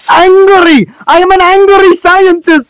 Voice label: angry scientist